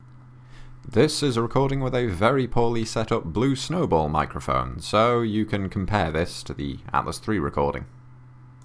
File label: snowball mic